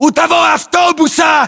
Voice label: landmark near bus, ru; localized english

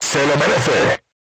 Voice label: voice message direct hit, crew tanks spanish

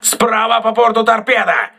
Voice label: voice message torpedo right, crew ships russian

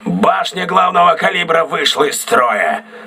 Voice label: main caliber turret damaged, crew ships russian

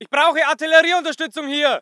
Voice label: voice ger infantry; Red Orchestra 41-45

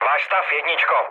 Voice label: chat, speech Czech; War Thunder

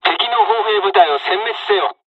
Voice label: ground attack, dialogs japanese; War Thunder

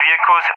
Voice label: "vehicles", ENG; Target; Allied Flight; DCS

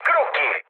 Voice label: enemy detected mood low, speech it; War Thunder

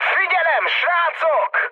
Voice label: near waypoint, mood high, speech hun; War Thunder

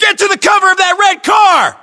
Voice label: US order cover car red, battlechatter, english

